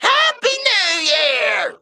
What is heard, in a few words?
Male Mech Emote HAPPY NEW YEAR LUNAR